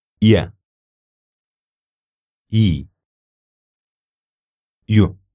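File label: "ya yi yu" Arabic, male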